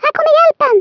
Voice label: "drop", Swedish, Speech; 11khz; International